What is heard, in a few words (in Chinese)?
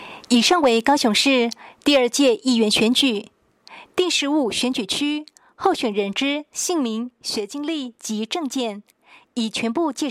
國語, 有聲選舉公報; 高雄市; 高雄市市長議員有聲選舉公報; 第十五選區